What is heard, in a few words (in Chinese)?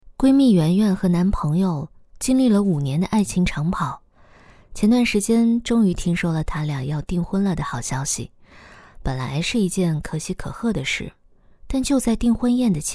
故事试音